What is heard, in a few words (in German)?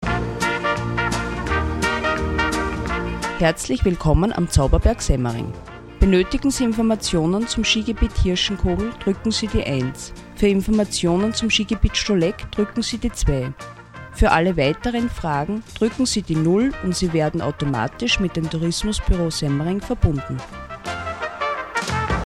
Ansage Big Band